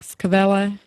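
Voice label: "skvele", Slovak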